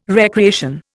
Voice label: "recreation", Indian